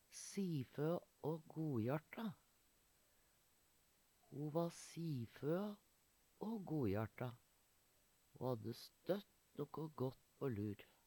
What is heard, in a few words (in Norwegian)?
sifoa, Numedalsmål; siføa å gojarta; Eksempel på bruk Ho va siføa å gojarta. Ho hadde støtt nokko gått på lur.